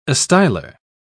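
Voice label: "astylar", British English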